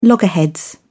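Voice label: "loggerheads", British English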